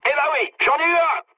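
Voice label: French player killed enemy mood med; War Thunder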